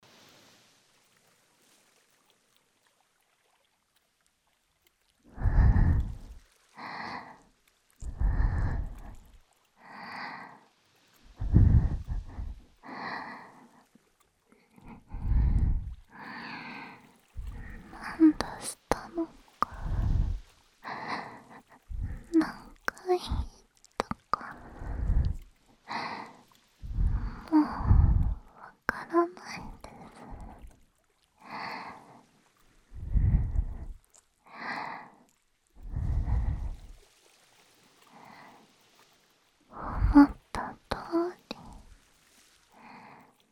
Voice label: ピロートーク, 添い寝, ささやき, キス, ASMR; ずっとずっと…そばにいて…お兄ちゃん…

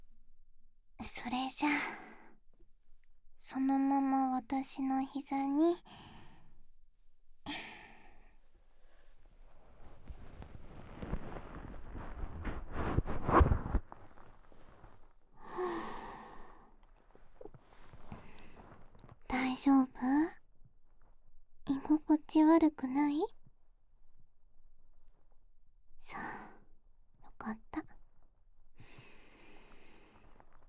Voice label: 耳かき OnTalk

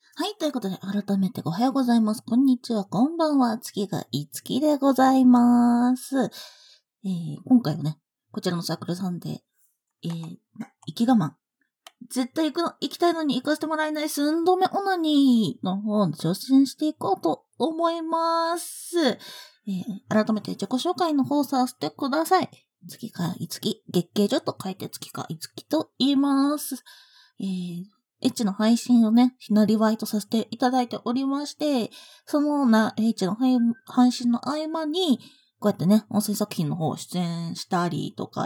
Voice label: 自己紹介, ASMR

